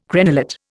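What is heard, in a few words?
"crenellate", Indian English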